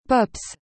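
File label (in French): "pops", French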